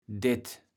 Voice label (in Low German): "det", kaevels platt